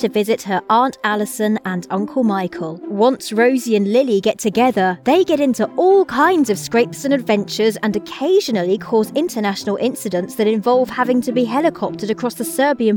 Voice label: Narrative